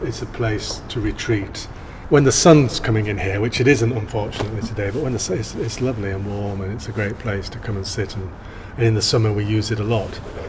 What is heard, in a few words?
Interview Untreated